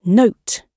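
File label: "note", British English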